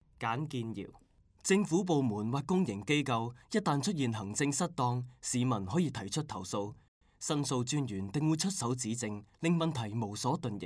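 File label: chinese cantonese male narration; voice demos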